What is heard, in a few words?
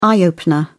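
"eye opener", British English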